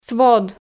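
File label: "свод", Komi, female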